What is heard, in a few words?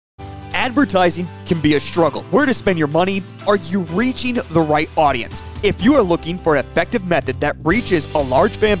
Live Ticket TV Commercial